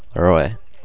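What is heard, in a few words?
"aloe", Japanese, male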